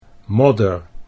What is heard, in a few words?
"modder", Dutch